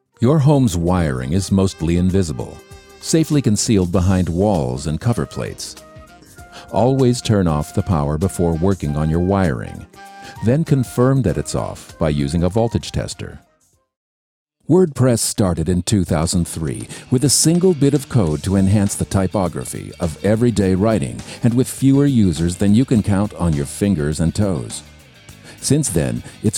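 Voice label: narration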